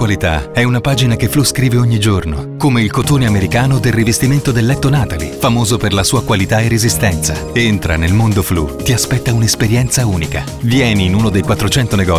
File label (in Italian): Naturale; FLOU